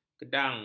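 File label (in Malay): "kedang", Malay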